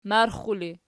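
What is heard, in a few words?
"marxxu" ergative, Archi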